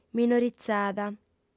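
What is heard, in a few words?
"minorizada", female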